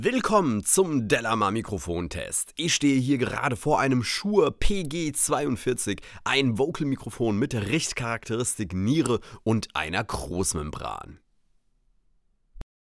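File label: speech male shure pg42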